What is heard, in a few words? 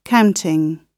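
"counting", British English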